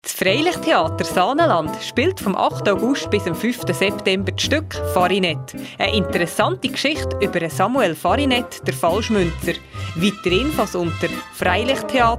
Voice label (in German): Werbespot; Farinet